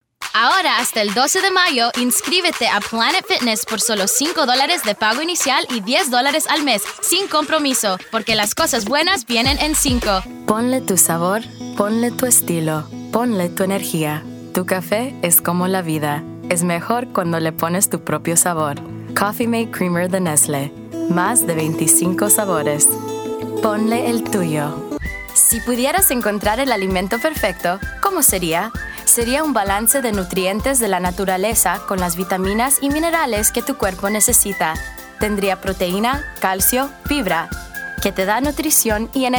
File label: spanish